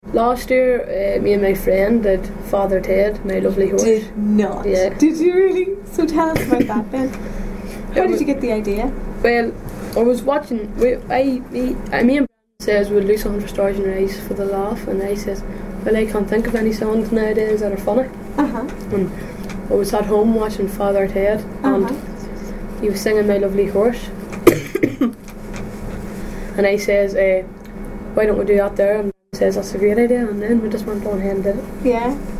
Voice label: Irish English, North of Ireland, interview, Armagh City, Co. Armagh